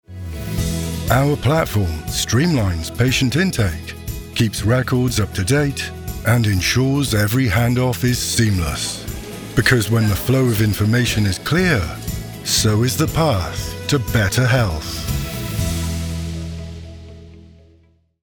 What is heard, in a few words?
Commercial, Healthcare Tech Platform / Mature, Knowledgeable, Professional, Corporate